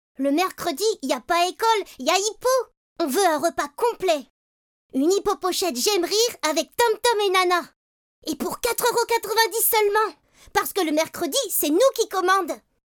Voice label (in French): Pub voix garçon; voix-off; Hippopotamus